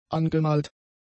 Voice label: "angemalt", German; adjectives

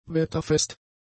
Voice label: "wetterfest", German; adjectives

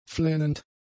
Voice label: "flennend", German; adjectives

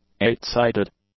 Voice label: "eight-sided", English; adjectives

